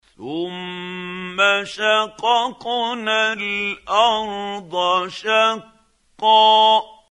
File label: quran, Hussary, tafseer; Abasa